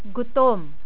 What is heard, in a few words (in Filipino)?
"gutom", Tagalog